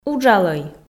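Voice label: "уджалӧй", Komi, female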